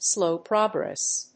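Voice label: "slow progress", English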